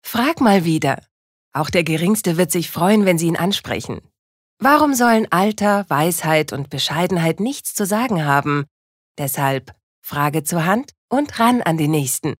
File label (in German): Werbung Freundlich